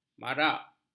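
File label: "marak", Malay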